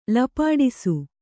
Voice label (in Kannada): "ಲಪಾಡಿಸು", Kannada; lapāḍisu